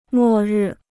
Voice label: "末日", Chinese